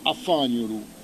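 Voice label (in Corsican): "afagnulu", Corsican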